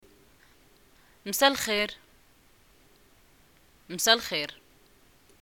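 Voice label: greeting evening, Moroccan Arabic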